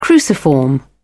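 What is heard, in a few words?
"cruciform", British English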